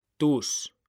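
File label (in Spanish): "tus", Spanish, male; mekanta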